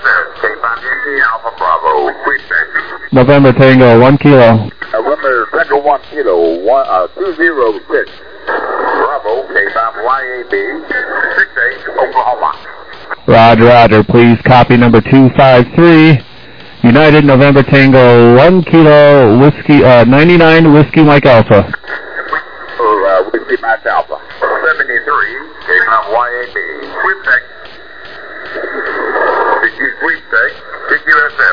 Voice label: SSB USB 21MHz

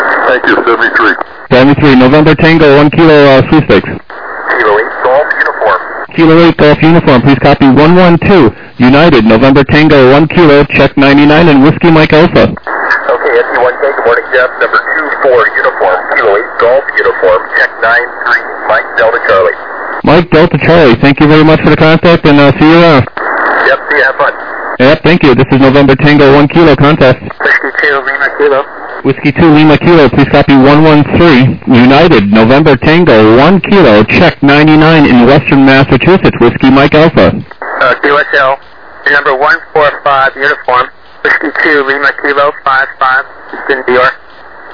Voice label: SSB LSB 7MHz

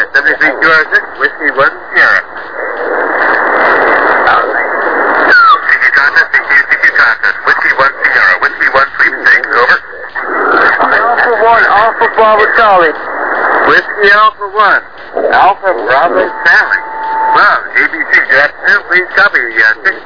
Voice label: SSB LSB 7MHz

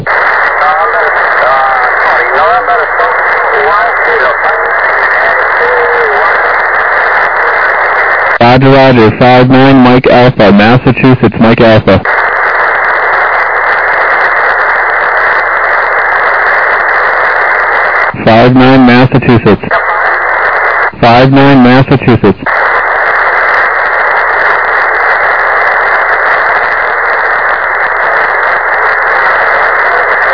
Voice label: USB 28MHz; ARRL10M

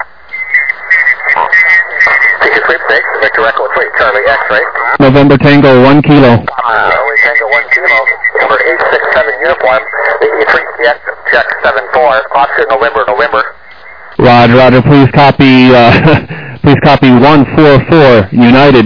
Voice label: SSB USB 14MHz

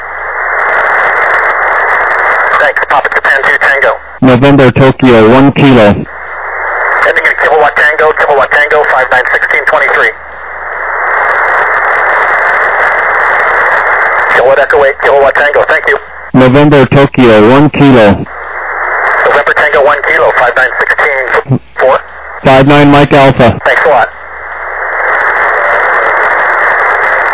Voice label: USB, 28MHz; ARRL 10M

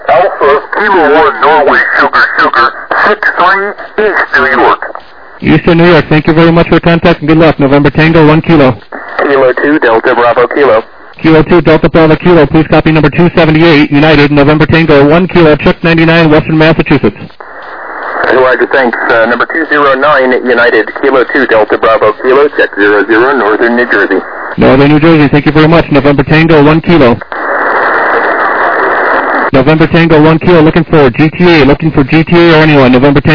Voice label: LSB, 7MHz; SSB

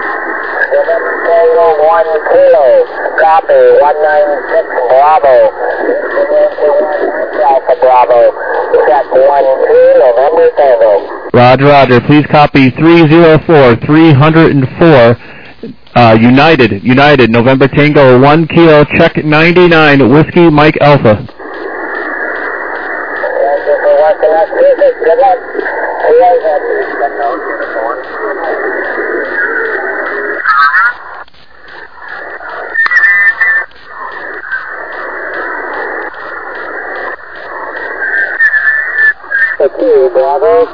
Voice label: SSB USB 21MHz

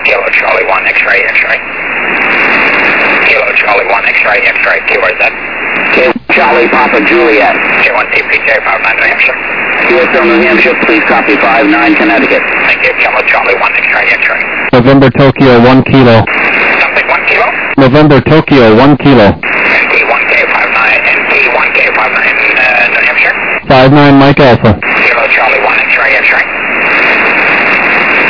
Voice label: USB, 28MHz; ARRL 10M

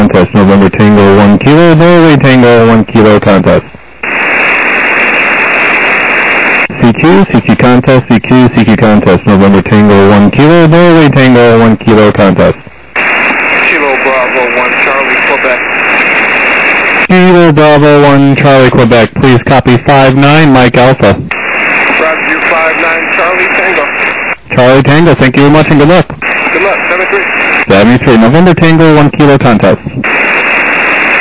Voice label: USB 28MHz; ARRL10M

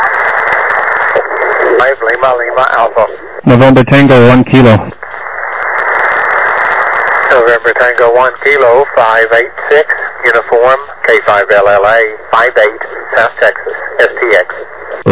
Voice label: SSB USB 21MHz

